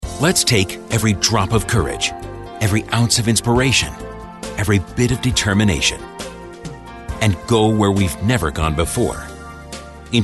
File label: Commercial